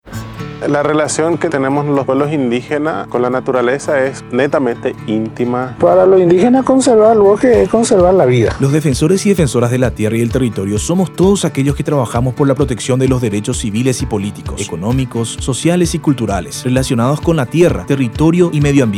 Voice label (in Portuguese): Spot Espanhol; Defensores